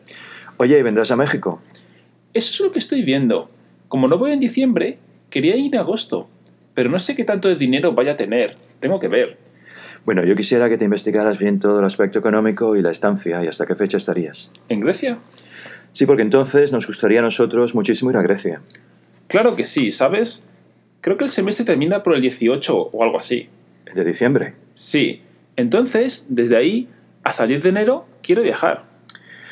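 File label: es-ES Broadband sample, Spanish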